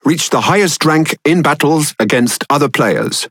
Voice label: Pirate Speech; World Of Warships